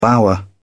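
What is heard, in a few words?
"bower", English, British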